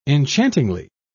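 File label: "enchantingly", British English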